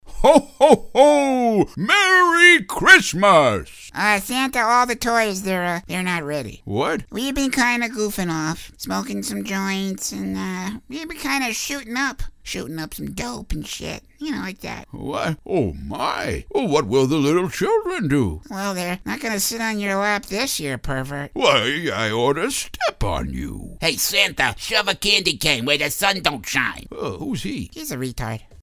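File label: SANTA AND HIS ELVES, cartoon; animation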